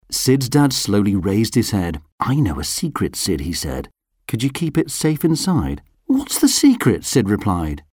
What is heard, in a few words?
english british audiobooks